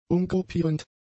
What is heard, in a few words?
"umgruppierend", German; adjectives